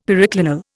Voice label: "periclinal", Indian; Dictionary

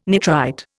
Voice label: "nitrite", Indian

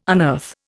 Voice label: "unearth", Indian

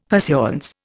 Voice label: "pursuance", Indian; Dictionary

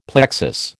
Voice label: "plexus", American

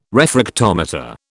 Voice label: "refractometer", British

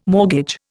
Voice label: "mortgage", Indian

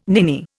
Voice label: "ninny", Indian

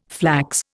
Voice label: "flax", Indian

